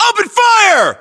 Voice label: order attack infantry, US

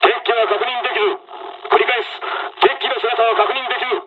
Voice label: dialogs japanese; War Thunder

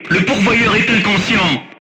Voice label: voice message charger contused, crew tanks french

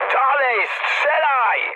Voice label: attack position mood high, speech pol

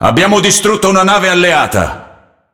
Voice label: Speech Destroy Ally Battleship, IT; World Of Warships

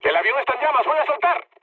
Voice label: on fire, mood med, speech; War Thunder

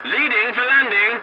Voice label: voice message landing, english; War Thunder

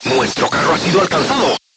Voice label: voice message player tank hit, crew tanks spanish

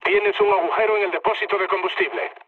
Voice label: damaged oil, mood low, speech; War Thunder

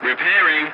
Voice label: voice message repairing; dialogs; War Thunder

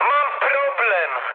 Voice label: aircraft damaged mood high, speech pol

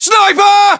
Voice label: sniper, english voiceovers; ModernWarfare; localized english; UK

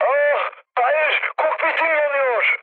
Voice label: on fire, mood high, speech tur; War Thunder

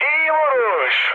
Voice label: bomb success, mood med, speech tur; War Thunder

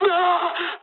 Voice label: jp damaged pilot, speech jp

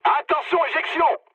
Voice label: bailout mood med, speech fr; War Thunder

